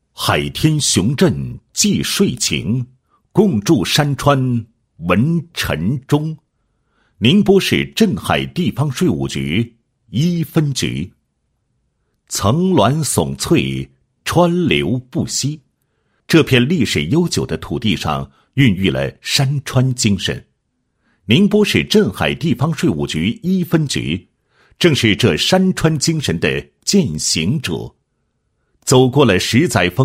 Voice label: 男 税务局 地方税务局宣传介绍; 山川颂

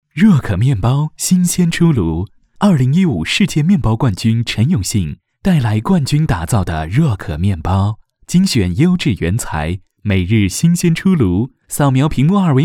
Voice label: 餐饮广告, 温暖, 亲和力; 若可面包

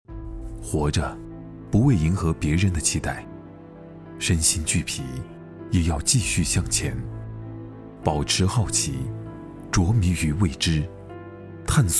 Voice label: 男声 暖声 广告 品牌广告; 白领精英

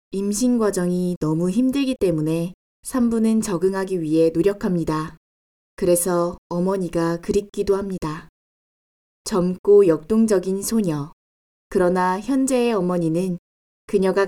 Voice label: 成熟亲切 婴儿产品宣传

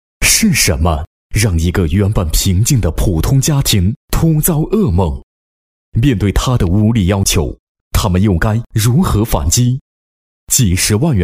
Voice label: 男声 浑厚磁性, 讲述; 谁能拯救我的家纺讲述

